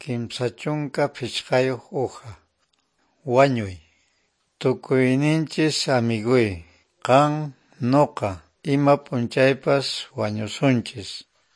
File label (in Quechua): good news quechua norte de bolivia apolo